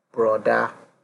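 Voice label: "broda", Nigerian Pidgin, male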